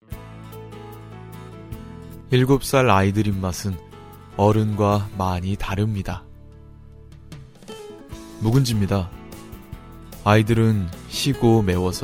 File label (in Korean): 나래이션 푸드 부드러운